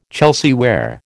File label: "Chelsea ware", American